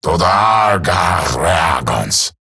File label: Patrol Eximus Grineer Male; Battle Barks